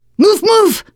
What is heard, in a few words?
emphatic go, teen girl; player; survivor; voice